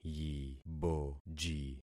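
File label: "yiboji", concatenated syllables; Affixing experiment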